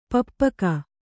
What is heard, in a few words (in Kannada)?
"ಪಪ್ಪುಕ", kannada